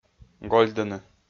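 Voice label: "гольдыны", Komi, male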